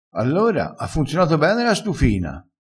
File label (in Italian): "poino", vocabolario dialettale